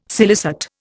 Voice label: "scilicet", Indian